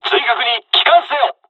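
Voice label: dialogs japanese evacuation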